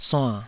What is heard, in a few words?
"suann3", taiwanese Syllables